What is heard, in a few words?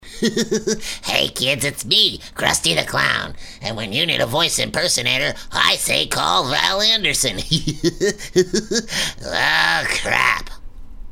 Impersonation; Crusty The Clown